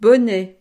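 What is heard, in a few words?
"bonnet", French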